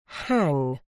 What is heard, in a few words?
"hang", uk